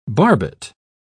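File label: "barbet", British English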